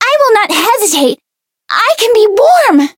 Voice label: Voice chant; yukino